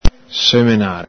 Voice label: "semenare", Veneto

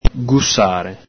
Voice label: "gusàre", Venetian

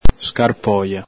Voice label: "scarpoja", Veneto

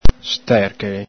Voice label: "sterchele", Veneto

